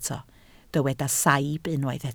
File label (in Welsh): "saib" Welsh